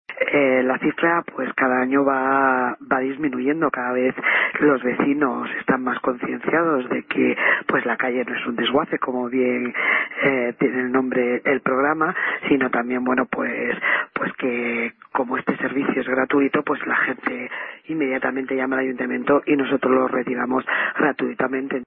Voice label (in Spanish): noticias